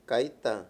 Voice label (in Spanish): "nada", Mayo; Palabras con distintas funciones